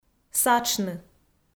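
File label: "сатшны", Komi, female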